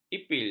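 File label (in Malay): "ipil", Malay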